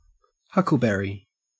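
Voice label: "huckleberry", Australian English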